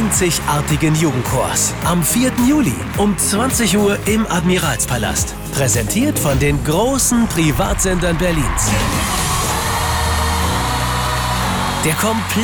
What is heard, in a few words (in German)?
Werbung Funk Youth Choir